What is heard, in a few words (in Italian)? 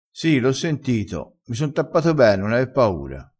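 "tappassi", vocabolario dialettale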